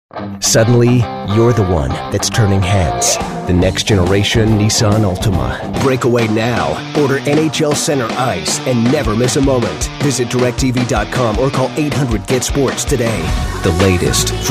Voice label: Commercial Male